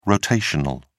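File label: "rotational", British English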